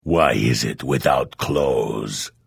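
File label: Hello, Vo; Morrowind GOTY GOG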